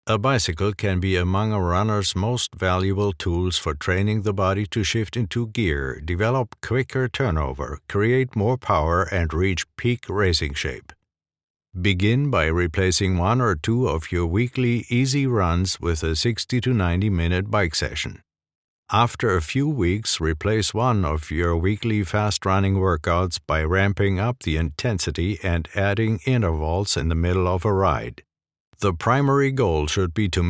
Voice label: English e-learning

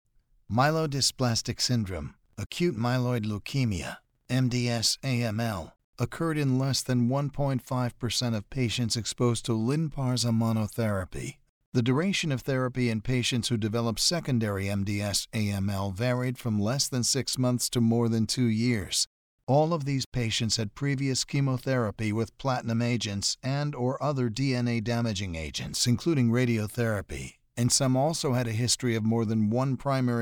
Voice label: english american medical narration

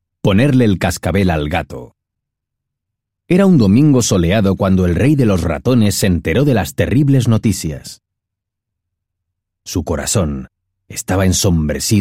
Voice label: spanish latin american narration

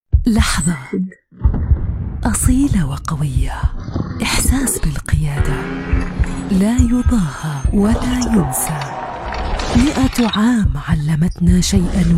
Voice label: arabic promos, arabic voice actors

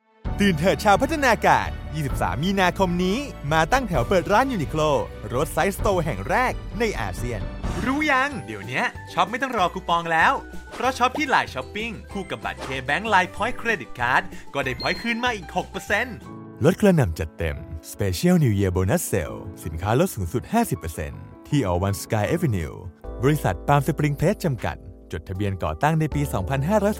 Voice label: thai commercial

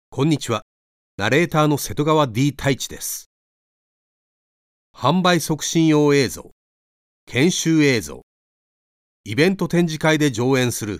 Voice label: japanese podcasts

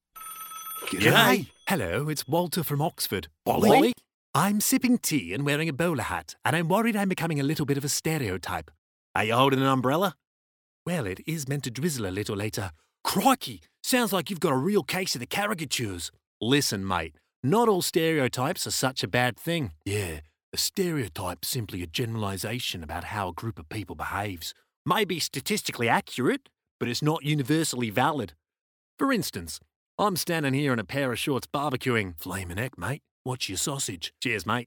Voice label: english australian animation

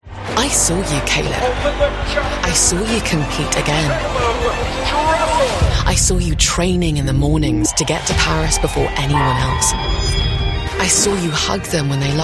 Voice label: english new zealand e-learning; voice actor

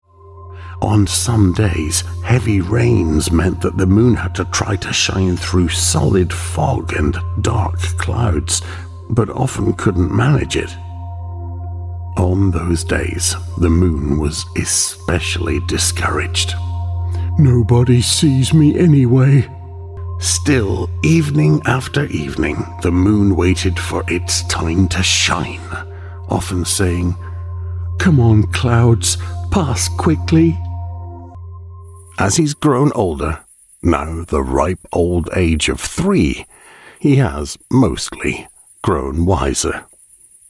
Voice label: english scottish narration

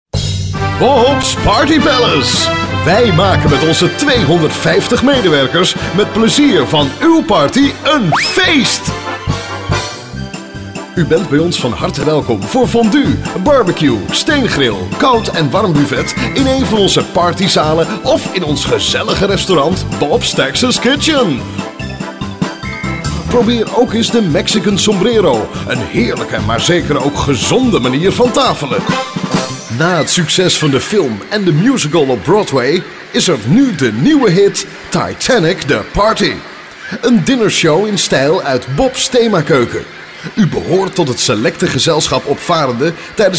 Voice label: dutch ivr

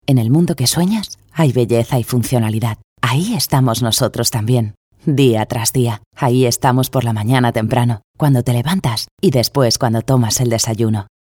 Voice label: spanish castilian corporate

